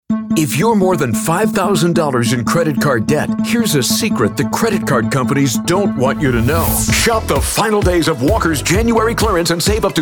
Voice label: hard sell